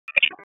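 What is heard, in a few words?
Police Special Operations Tactical; wake; p25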